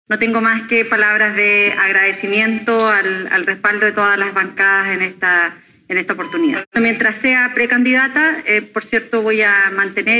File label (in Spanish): cuña